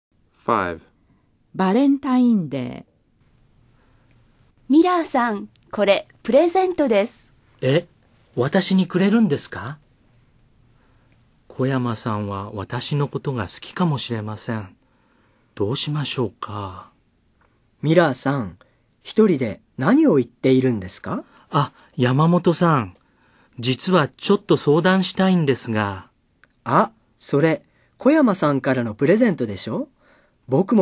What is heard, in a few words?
Dialog